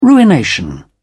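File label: "ruination", English, British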